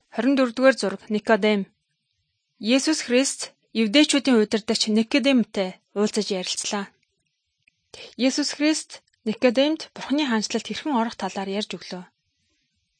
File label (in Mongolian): good news mongolian